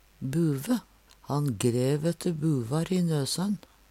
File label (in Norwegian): "buve", Numedalsmål